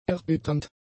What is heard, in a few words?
"erbitternd", German; adjectives